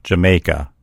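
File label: "jamaica", English; gcompris; geography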